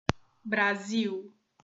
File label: "brasil", portuguese